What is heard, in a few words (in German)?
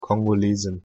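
"kongolesin", German; pronunciation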